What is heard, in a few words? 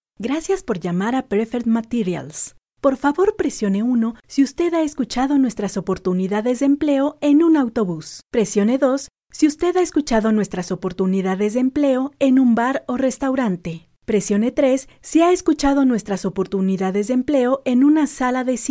IVR/Phone systems Female Spanish (Latin American)